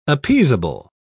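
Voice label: "appeasable", British English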